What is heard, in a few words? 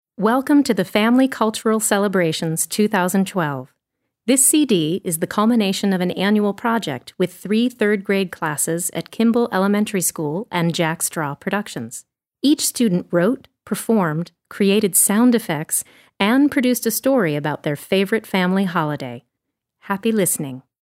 Opening Narration